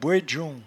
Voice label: "bouedjon", patois jura

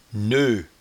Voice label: "neut", patois jura

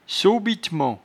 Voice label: "sobitement", patois jura

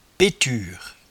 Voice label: "peture", patois jura

